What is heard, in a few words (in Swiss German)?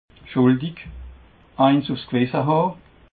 "schuldig", alsace